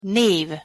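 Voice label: "nev", Hungarian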